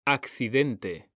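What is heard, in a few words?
"accidente", Spanish, male; mekanta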